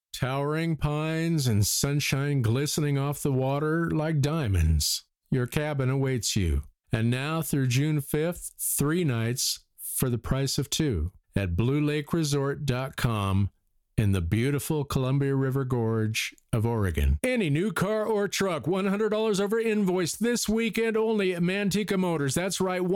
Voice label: Dry Voice